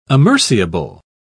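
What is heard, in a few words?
"amerciable", English, British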